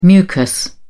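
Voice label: "mucus", British English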